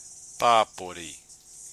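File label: "papori", Māori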